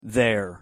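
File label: "their", English pronunciation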